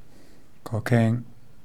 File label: Ja koken (non native speaker)